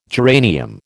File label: "geranium", American